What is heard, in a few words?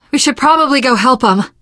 inside saferoom, teen girl; survivor voice